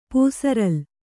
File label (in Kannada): "ಪೂಸರಲು", Kannada